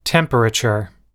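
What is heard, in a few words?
"temperature", English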